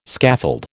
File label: "scaffold", American